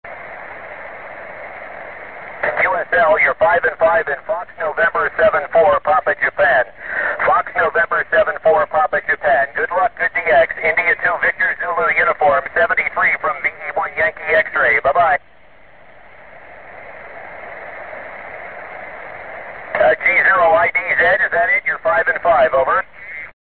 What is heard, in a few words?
loud